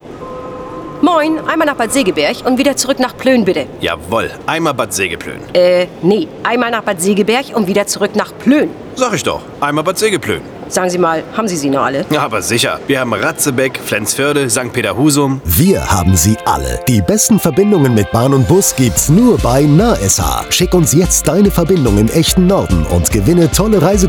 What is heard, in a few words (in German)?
Funkspot; Peperoni; NAH SH; Wir haben sie alle